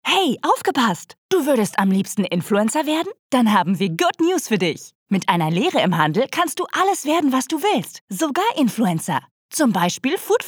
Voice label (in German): Werbung enthusiastisch